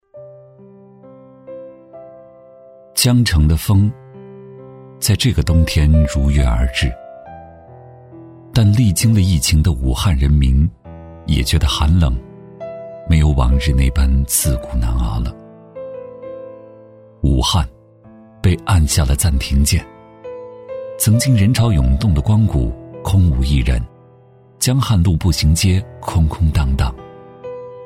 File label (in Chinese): 男 走心旁白; 武汉; 磁性舒缓; 质感磁性